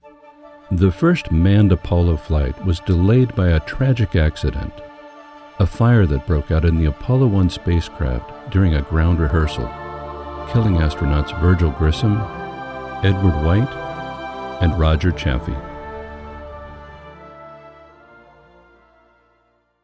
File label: Narration; NASA